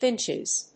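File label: "Finches", English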